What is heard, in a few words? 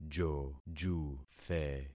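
"jojuthe", concatenated syllables; Affixing experiment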